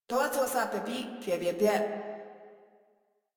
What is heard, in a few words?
Patrol Female; Warframe; Modular Corpus Female